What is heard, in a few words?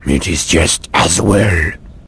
answer, vort speech; hl2